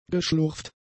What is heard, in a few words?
"geschlurft", German; adjectives